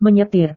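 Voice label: "menyetir", Indonesian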